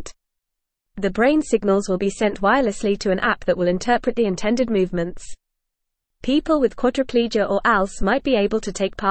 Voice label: English Newsroom Upper Intermediate FAST Reading Neuralink Recruits Participants for Human; Neuralink Recruits Participants for Human Trial of Brain Computer Interface